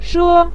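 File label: "she", Mandarin Chinese syllable, neutral tone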